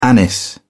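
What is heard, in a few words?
"anise", British English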